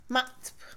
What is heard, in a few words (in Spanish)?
ordeñar, Mixe, Mujer; Comida; INALI